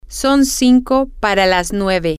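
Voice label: Spanish, female; it's five to nine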